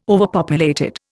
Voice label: "overpopulated", Indian

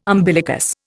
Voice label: "umbilicus", Indian

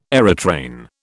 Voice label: "aerotrain", British

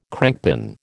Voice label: "crankpin", American

